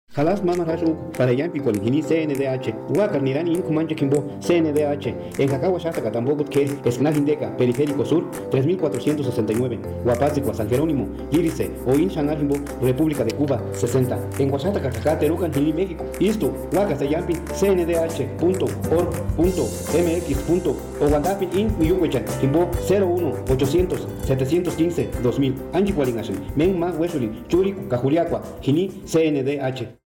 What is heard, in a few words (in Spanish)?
Spot, purepecha; Campanas; CNDH